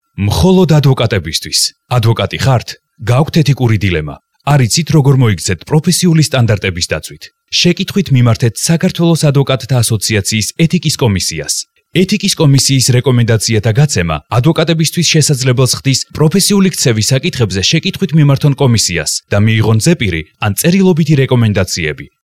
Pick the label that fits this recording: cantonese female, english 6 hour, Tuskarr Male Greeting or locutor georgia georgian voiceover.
locutor georgia georgian voiceover